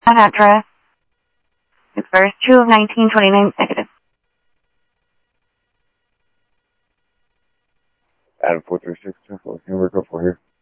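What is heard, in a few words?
Sheriff East County, scanner audio trunk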